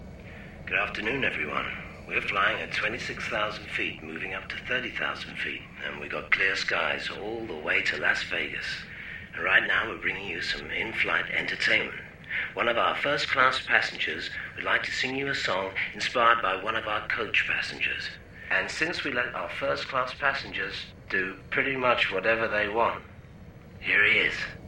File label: Pilot Voice In Plane